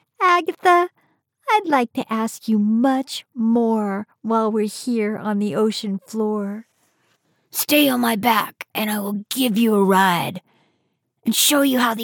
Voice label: Children's Audio Book; Agatha Turtle